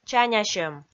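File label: "чанясьӧм", Komi, female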